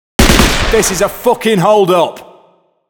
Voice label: 'Hold-up' (explicit) (text message tone); Payday The Heist; Hoxton